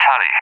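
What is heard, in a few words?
"tally", English, UK; DCS; Allied Flight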